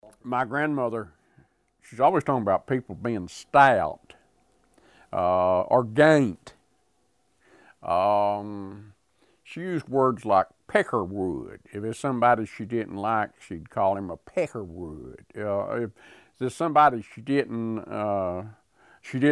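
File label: Appalachian Speaker, Tar Heel dialects; North Carolina; quiz voices of north carolina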